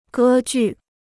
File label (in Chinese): "歌剧", Chinese